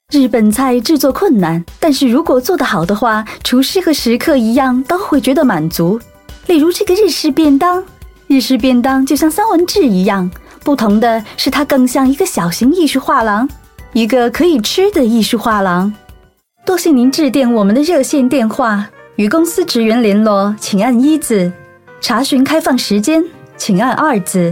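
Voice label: Mandarin Narration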